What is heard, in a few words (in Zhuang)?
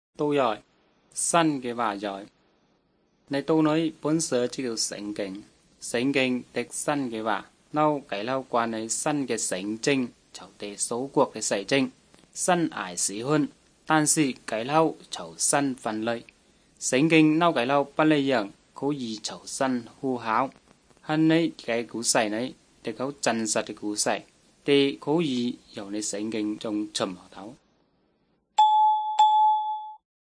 good news zhuang nanning fushu; zhuang yongbei; bible